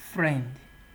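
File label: "friend", Nigerian Pidgin, male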